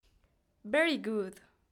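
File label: "very good", English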